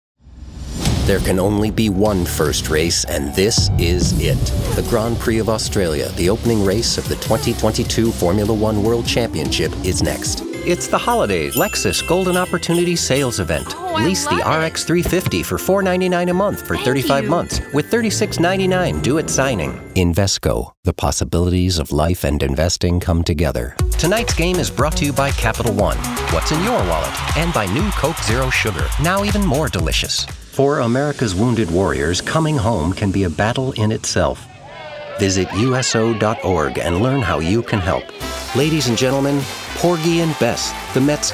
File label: Commercial